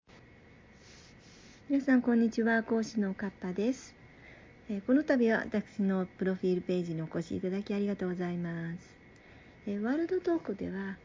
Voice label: voice japanese